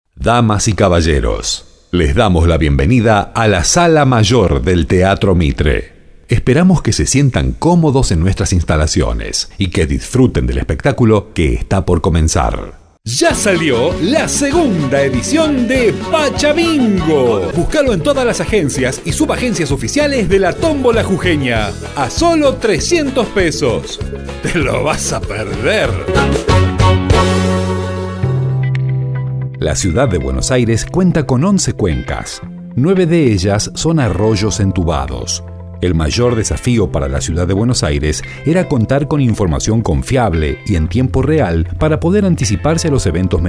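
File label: Argentine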